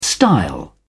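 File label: "style", English, uk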